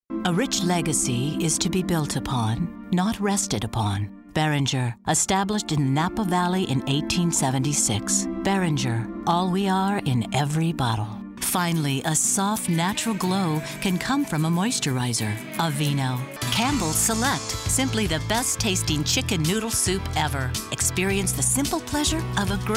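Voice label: commercial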